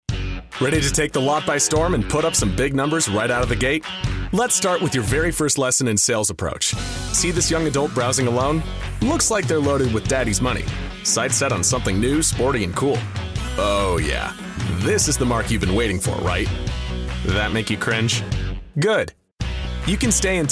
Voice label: men elearning; Part Millennial, part Gen-Z, all depth and warmth. The voice for right now.